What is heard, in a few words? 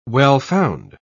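"well-found", British English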